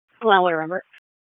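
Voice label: Police Southwest District Dispatch; Raleigh; wake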